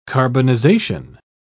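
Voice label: "carbonization", British English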